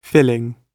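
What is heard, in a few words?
"filling", British English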